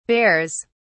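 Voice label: "bears", British English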